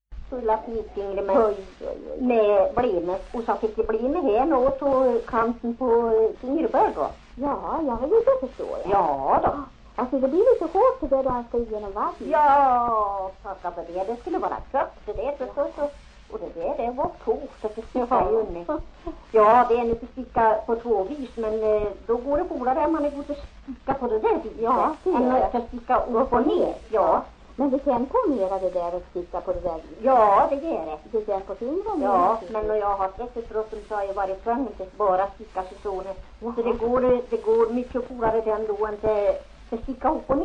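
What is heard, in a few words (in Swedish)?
Järvsö Hälsingland, dialekter; Folkminnen; Svenska sagor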